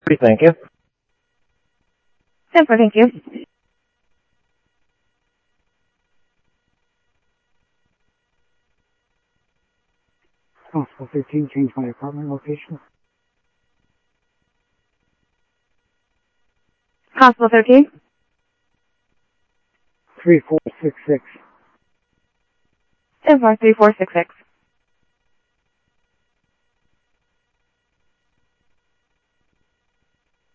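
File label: Sheriff West County, scanner audio trunk; MCSO - WEST Sheriff-WestCnty; ThompsonPeakScot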